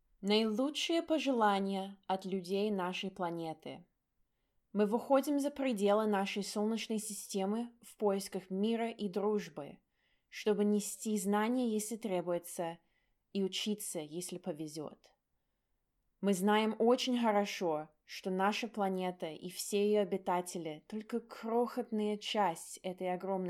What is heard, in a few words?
Russian Greeting; Golden Record